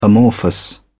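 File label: "amorphous", English, British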